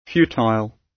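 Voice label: "futile", British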